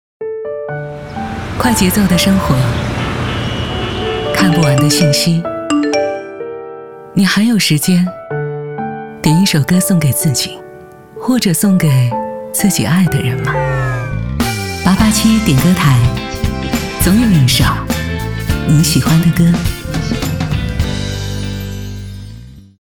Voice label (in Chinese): 电台片花